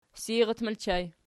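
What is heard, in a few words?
seirat ergative plural, Archi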